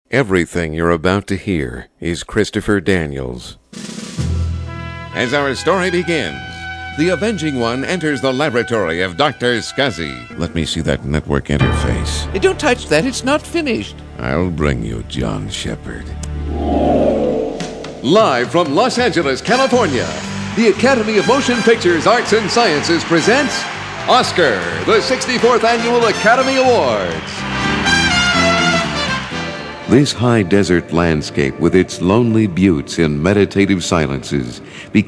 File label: Commercial, Male voices